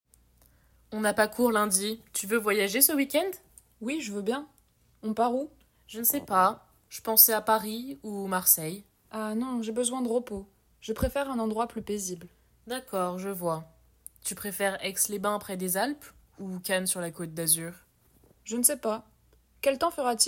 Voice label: Dialogue, french